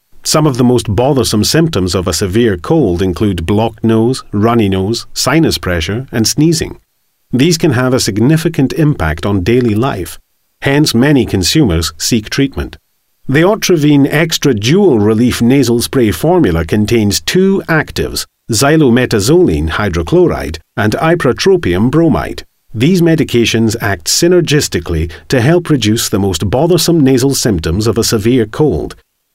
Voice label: Advert; Otravine

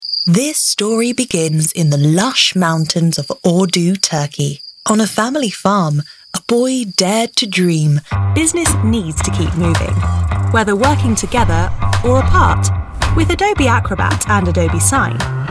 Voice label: RP Commercial

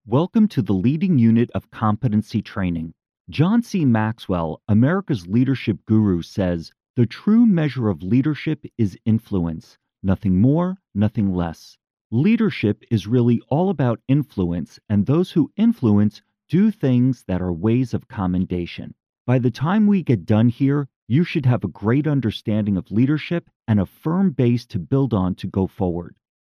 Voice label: E Learning Leadership

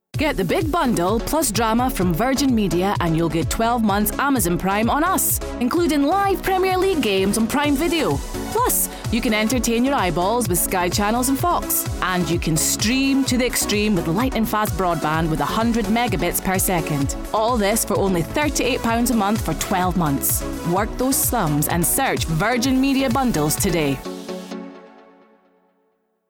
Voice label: Commercial; Virign Media

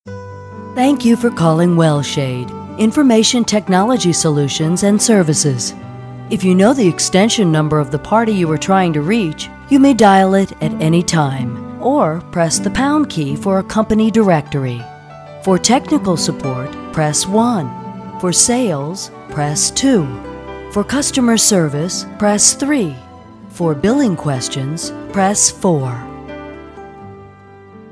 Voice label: Voice Prompts

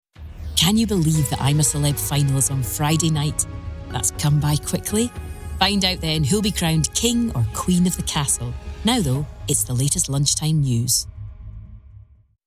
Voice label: Ident

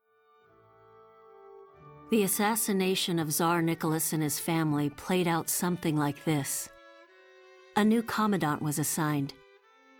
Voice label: TV Documentary Narration

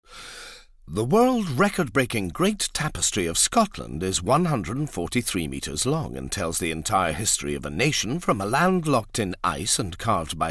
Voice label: Scottish Parliament Advert

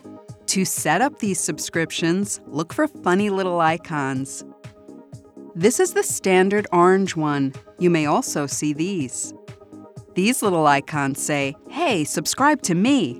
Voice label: E-learning

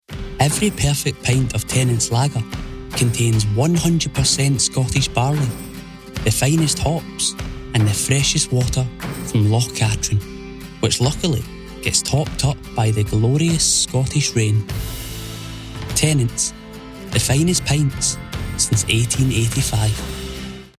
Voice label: Lager Commercial; Tennents

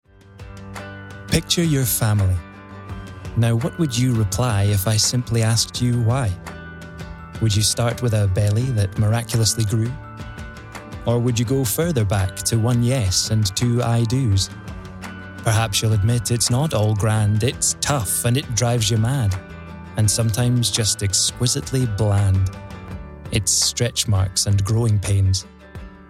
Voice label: Commercial; Tesco

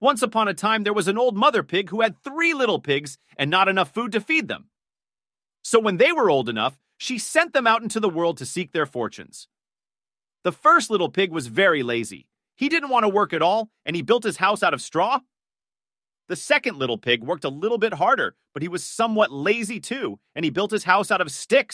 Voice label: Teen male voices

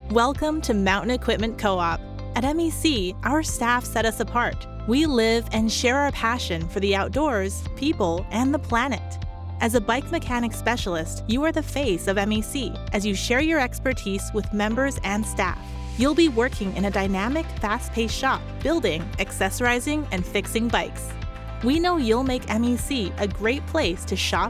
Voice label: Corporate Narration

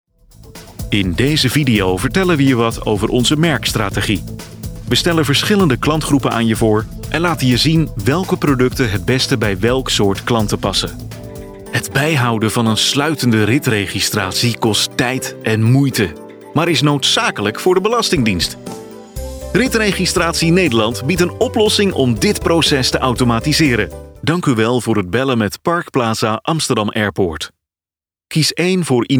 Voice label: Corporate

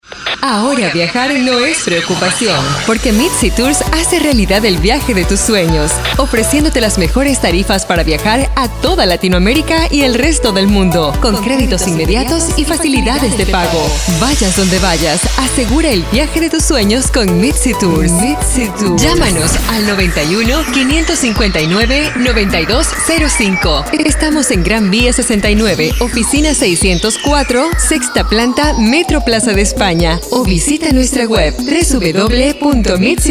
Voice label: radio; Mitzi Tours

